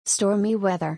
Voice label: "Stormy Weather", English; thunder-and-lightning-in-english

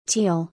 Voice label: "Teal", English

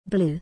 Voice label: "Blue", English

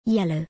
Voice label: "Yellow", English

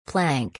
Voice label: "Plank", English

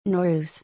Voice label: "Nowruz", English

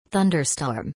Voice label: "Thunderstorm", English; thunder-and-lightning-in-english

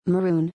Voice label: "Maroon", English

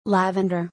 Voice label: "Lavender", English; color-in-english

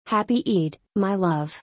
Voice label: "Happy Eid my love", English

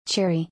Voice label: "Cherry", English

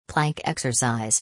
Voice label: "Plank Exercise", English

